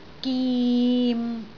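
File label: "gim6", Cantonese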